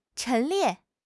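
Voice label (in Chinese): "陈列", Chinese; HSK6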